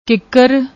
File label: "kiker", Punjabi